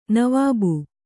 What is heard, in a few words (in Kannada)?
"ನವಾಬ", Kannada